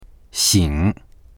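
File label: "xing3", Mandarin Chinese; 醒; 目が覚める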